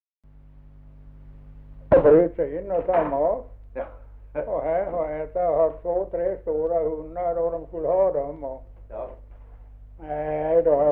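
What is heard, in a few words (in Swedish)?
Ovansjö Gästrikland, dialekter; Folkminnen; Svenska sagor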